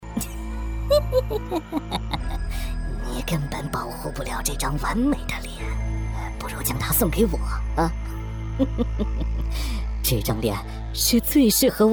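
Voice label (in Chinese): 角色 男 恐怖变态 加效果 密室 杀人犯; 角色-男27-恐怖变态-加效果-密室-杀人犯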